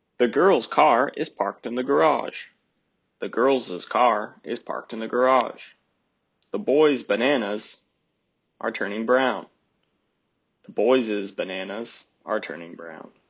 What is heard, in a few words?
Possessive Pronunciation Practice Model